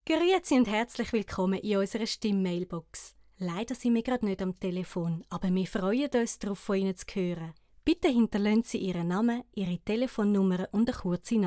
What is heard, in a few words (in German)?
sprecherin Telefonansage CH-Deutsch